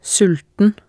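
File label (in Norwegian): "sulten", Norwegian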